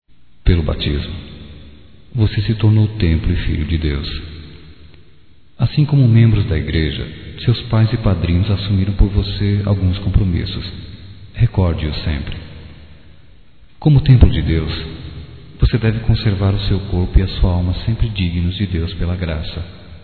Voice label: Mensagem de Batizado Masculino, Só Voz; Telemensagens; Telemensagens 2017

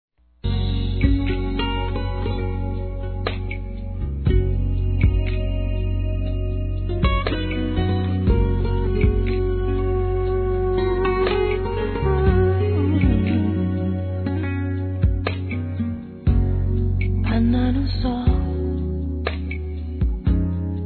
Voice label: Aniversário Sobrinha Feminino; Aniv Familiar

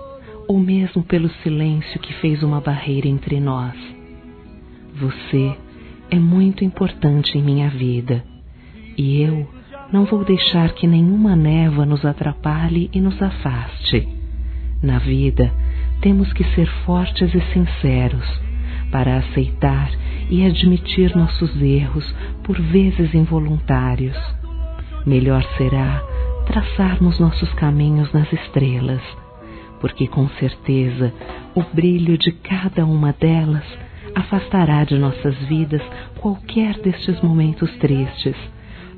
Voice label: desculpe por tudo f; Telemensagens; Desculpas; fem; Telemensagens 2017

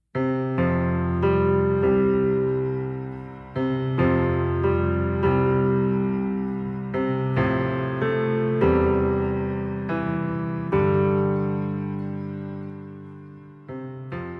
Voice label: DIA DOS NAMORADOS F, fem; Telemensagens 2017

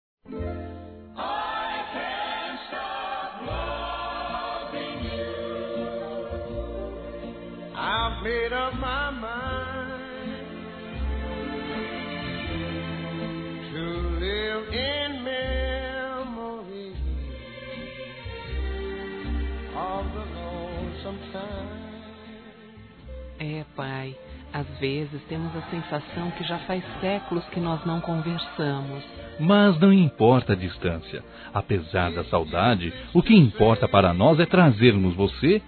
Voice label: Aniversário Pai Distante Duas Vozes, Telemensagens, Fem; Aniversários; Aniv Familiar

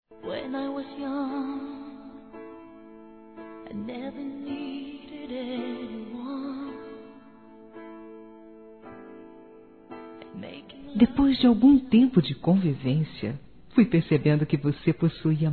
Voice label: Aniversário Namoro Feminino; Aniversários; Aniversários diversos

